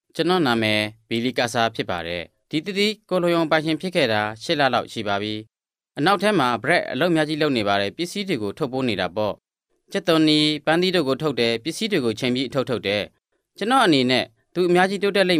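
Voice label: Burmese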